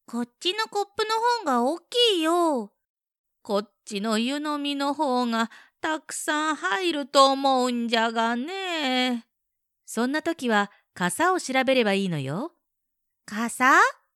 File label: female